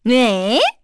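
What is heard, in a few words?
Vox Deny, korean; Cecilia; kingsraid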